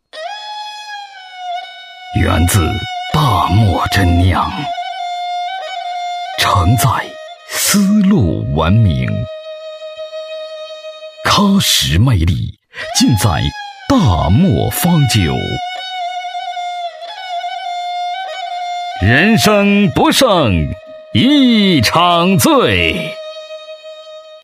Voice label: boy wine; Chinese; guanggao; cixingshaya